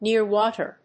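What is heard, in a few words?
"near water", English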